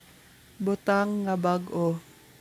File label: Cebuano